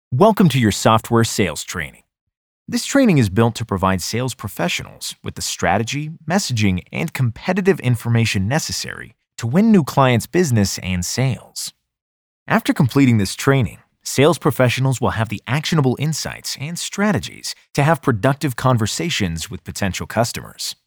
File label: eLearning